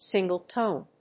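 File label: "single tone", English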